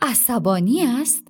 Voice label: angry